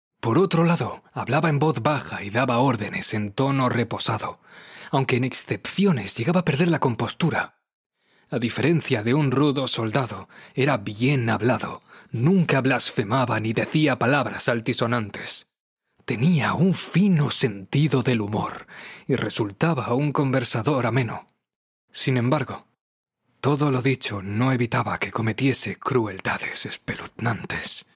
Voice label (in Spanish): locutor spanish voiceover